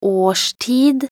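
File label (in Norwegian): "årstid", Norwegian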